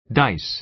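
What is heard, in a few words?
"dice" American English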